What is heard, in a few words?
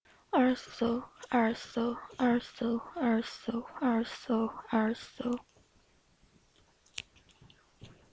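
"also", spoken word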